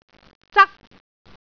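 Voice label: "zak1", Cantonese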